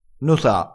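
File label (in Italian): "nota", dialettale crespinese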